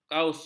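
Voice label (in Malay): "kaus", Malay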